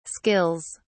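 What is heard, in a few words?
"skills", British English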